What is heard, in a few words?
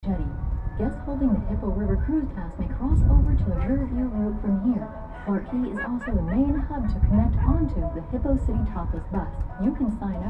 singapore voice over